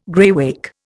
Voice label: "graywacke", Indian

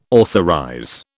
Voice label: "authorize", British

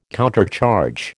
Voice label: "countercharge", American

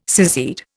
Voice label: "secede", Indian

